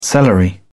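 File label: "celery", British English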